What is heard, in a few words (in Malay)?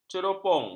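"ceropong", Malay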